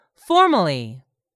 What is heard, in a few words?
"formally", English; 3000 words